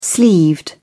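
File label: "sleeved", British English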